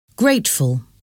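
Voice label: "grateful", English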